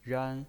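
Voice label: "ran", Mandarin Chinese, first tone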